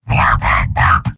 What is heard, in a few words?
soldier robot; video games; source engine; tf; mvm